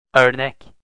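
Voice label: "ornek", Turkish, male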